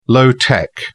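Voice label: "low-tech", British English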